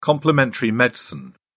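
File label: "complementary medicine", British English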